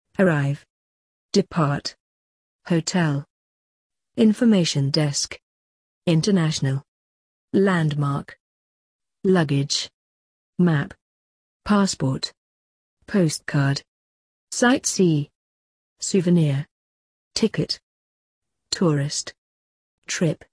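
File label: travel, British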